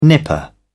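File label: "nipper", British English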